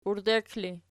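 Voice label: "uirdek" ergative, Archi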